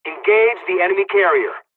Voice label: bombing, dialogs czech; midway; War Thunder